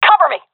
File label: request coverme, seal fem; vo; Counter Strike Model Pack; CS:GO